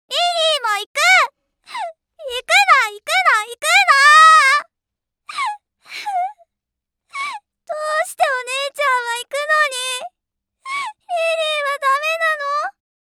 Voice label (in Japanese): 幼女